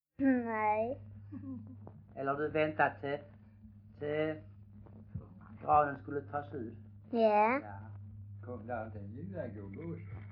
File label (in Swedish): Stehag Västra Sallerup SK, dialekter; Folkminnen